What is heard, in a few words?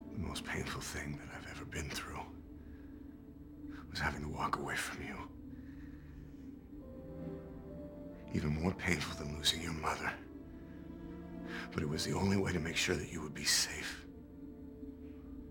painfull